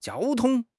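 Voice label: "jiao1 tong1", Mandarin Chinese; HSK; HSK4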